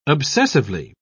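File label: "obsessively", British English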